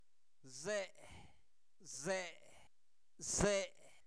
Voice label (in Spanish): elote, Zapoteco, santo domingo albarradas; comestibles; INALI